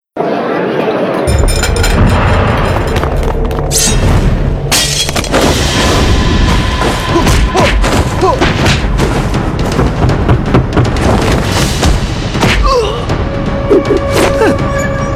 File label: chinese mandarin animation